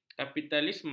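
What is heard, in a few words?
"kapitalisme", Malay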